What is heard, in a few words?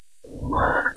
Voice Acting, es